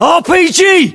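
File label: threat rpg, British; battlechatter; localized english; uk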